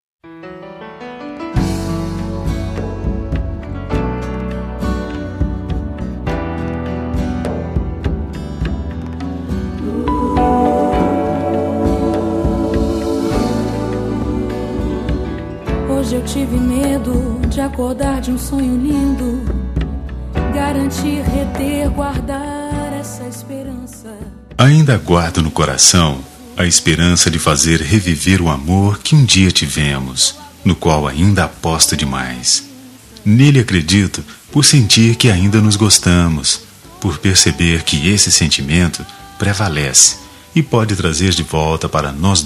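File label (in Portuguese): Namorados Com Reconciliação, male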